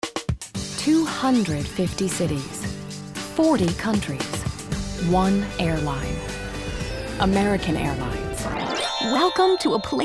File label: Commercial, VOICE-OVER; Commercial Demo